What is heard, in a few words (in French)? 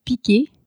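"piquer", French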